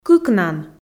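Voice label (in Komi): "кыкнан", Komi, female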